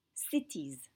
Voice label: "cytise", French